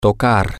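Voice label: to play instruments, Spanish, male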